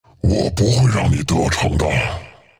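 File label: deny, chinese; dota2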